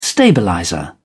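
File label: "stabilizer", British English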